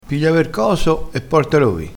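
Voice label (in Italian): "coso", dialettale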